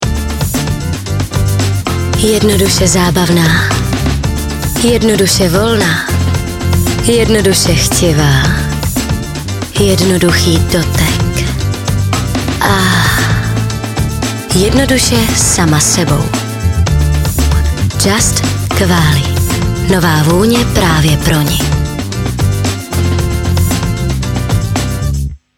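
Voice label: Czech commercial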